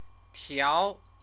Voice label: "tiao", Chinese